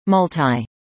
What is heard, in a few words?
"multi", English